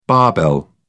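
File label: "barbell", British English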